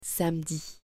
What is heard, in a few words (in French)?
"samedi" French